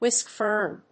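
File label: "Whisk fern", English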